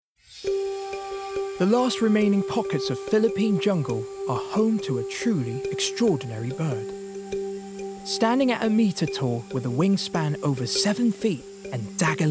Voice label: Philippines Eagle Doc; Philippine Eagle – Queen of Birds